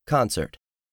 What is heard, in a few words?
"CONCERT", English; 3000 words